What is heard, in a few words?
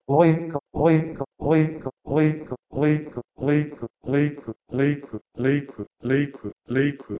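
Proto-Indo-European loikw from leikw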